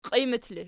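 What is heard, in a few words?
"qiimat" ergative, Archi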